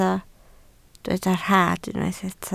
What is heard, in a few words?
"rhad", Welsh